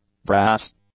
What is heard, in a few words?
"brass", English; nouns